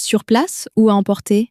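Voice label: "sur place ou à emporter", French, female; flashcards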